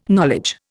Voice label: "knowledge", Indian